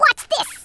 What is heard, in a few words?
"watch this", Brooklyn; Worms Armageddon